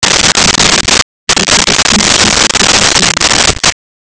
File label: speech nav